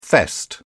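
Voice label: "fest", British English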